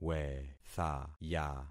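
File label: "wethaya", concatenated syllables; Affixing experiment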